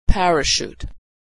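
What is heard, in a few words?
"parachute", American English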